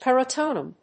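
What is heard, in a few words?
"peritoneum", English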